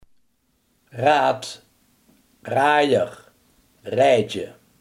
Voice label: "wiel", limburgse

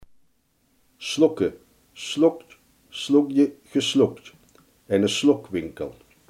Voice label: "snoepen", Limburgs

